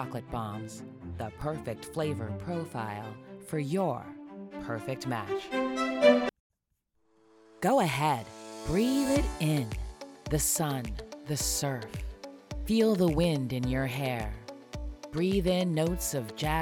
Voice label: Spec TV ads with Music